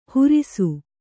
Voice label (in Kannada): "ಹುರಿಸು", Kannada